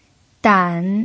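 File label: "dan" third tone, Mandarin Chinese pinyin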